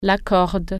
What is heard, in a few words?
"cord", french